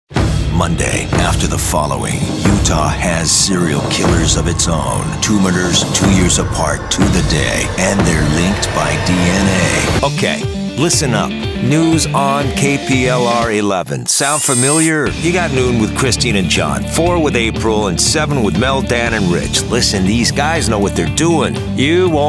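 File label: tv affiliates male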